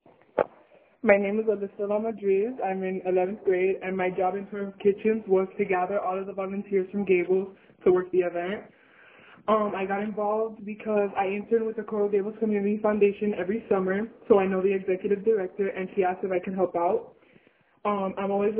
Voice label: Audio message